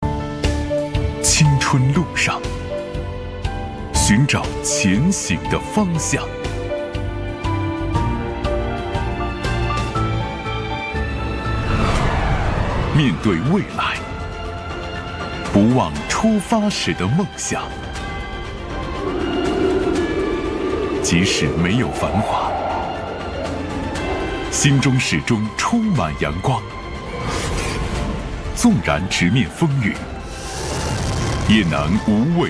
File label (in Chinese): 男 激情磅礴征兵宣传片